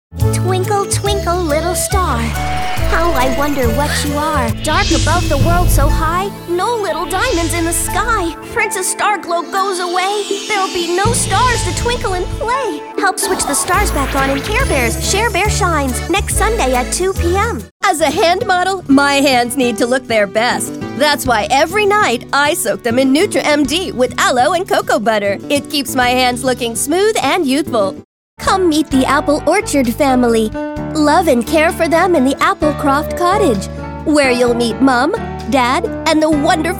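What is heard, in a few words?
American English, TV commercial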